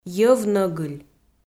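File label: "йӧв нӧгыль" Komi, female; audio dict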